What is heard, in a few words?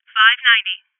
Voices Alarm State Female